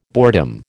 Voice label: "boredom", American